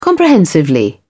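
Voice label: "comprehensively", English, British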